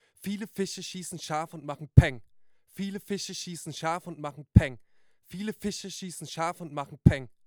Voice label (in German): akg c7 sibilanten und pop-laute